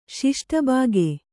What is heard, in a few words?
"ಷಷ್ಠಭಾಗ", Kannada